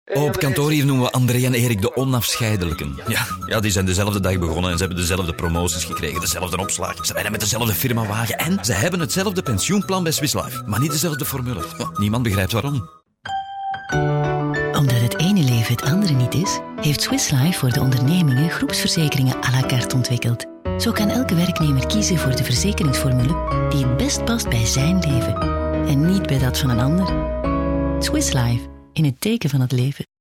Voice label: flaemisch; bruessel

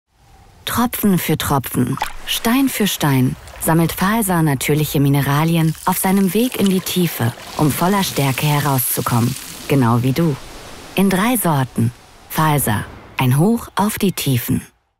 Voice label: Commercial (Werbung), German; Valser - Tropfen für Tropfen; Ein Hoch auf die Tiefen

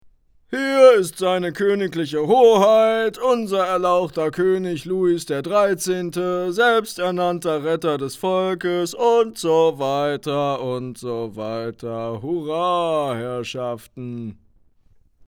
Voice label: Rolle Lustloser Diener, German; Scene, Lip-Sync (Synchron)

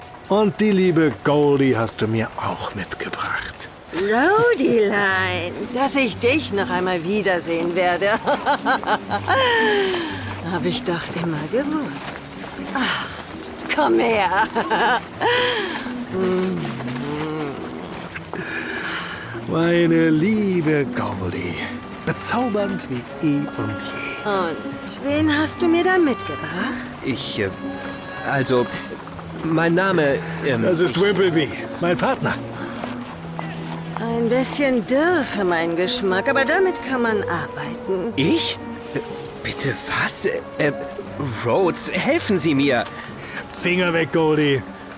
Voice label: hörspiel

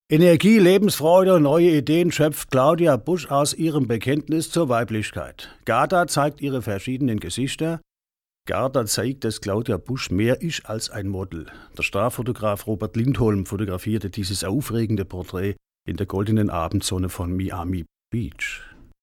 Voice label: dialekt

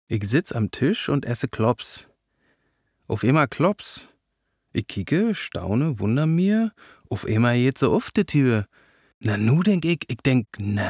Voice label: Berlinerisch Kloppsgedicht

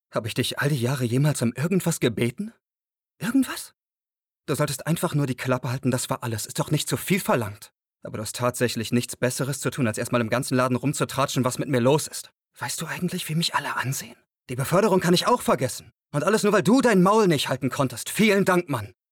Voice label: sync enttaeuscht

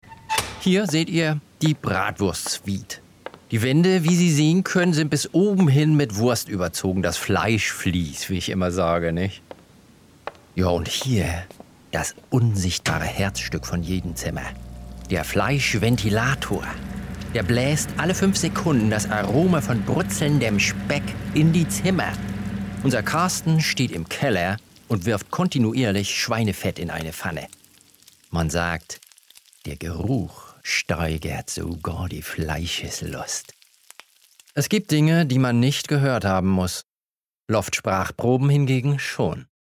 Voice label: NORDDEUTSCH; LOFT VOICES

